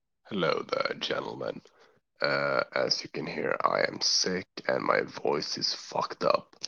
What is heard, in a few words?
voice message